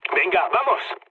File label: enemy detected mood low; speech; War Thunder